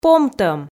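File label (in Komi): "помтӧм", Komi, female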